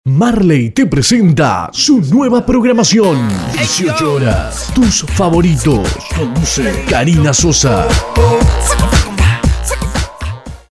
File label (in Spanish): tus favoritos promo programacion